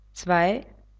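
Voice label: "zwei", German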